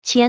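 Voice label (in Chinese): "千", Chinese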